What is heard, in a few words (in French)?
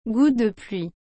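"goutte de pluie", French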